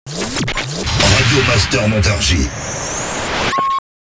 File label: Sweeper MEDIUM; Radio Master Montargis; Habillage